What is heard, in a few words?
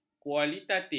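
"kualitatif", Malay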